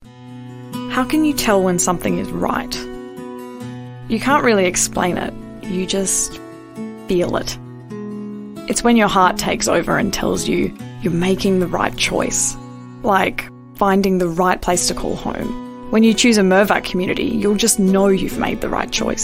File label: COMMERCIAL AUSTRALIAN VO; DEMO REEL